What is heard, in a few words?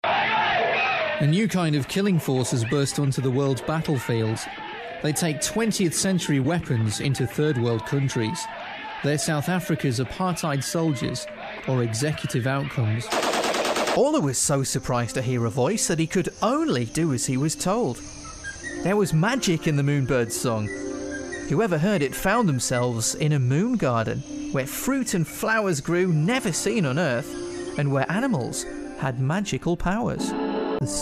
narration montage